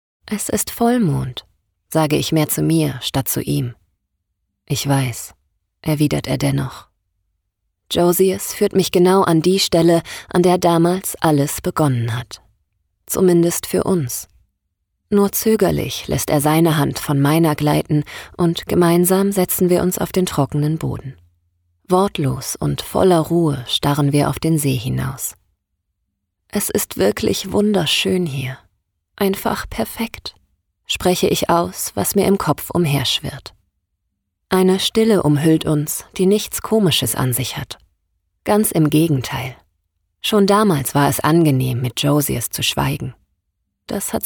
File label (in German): Hoerbuch Romance; Stimmen Weiblich